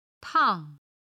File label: "tang4", Chinese